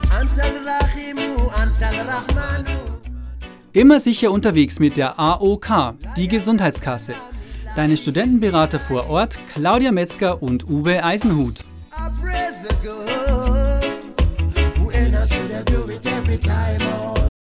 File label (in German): Werbung Reminder; AOK